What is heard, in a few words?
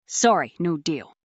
fem